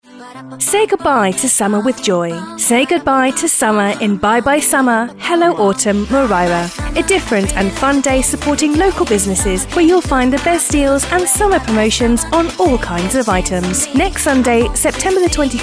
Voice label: Inglés